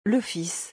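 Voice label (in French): "Le fils", French; vocabulaire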